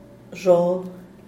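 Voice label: "xhob", Zapotec, Díaz Ordaz; maiz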